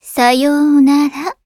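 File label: skill, Japanese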